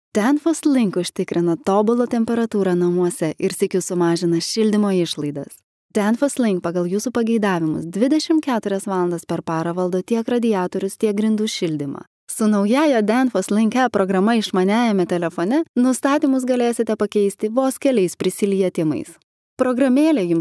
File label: female lithuanian voice over narrative